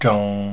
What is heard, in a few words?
"zhong", pinyin; Mandarin Chinese Pronunciation